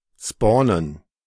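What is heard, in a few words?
"spawnen", German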